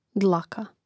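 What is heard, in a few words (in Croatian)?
"dlaka", Hrvatski; mreznik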